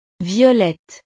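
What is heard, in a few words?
"violette", French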